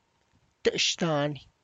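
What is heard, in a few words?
tlacochahuaya, Zapotec; slopes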